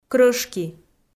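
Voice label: "крӧшки", Komi, female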